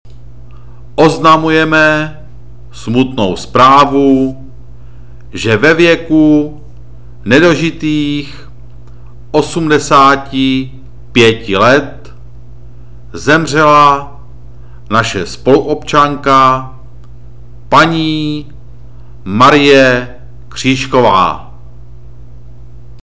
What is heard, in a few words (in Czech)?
smut hlaseni